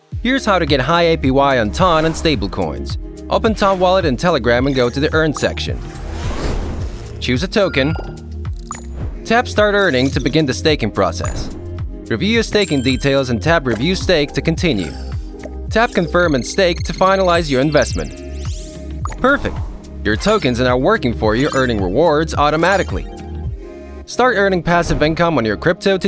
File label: male russian english with usa accent explainer; ton telegram